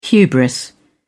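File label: "hubris", English, uk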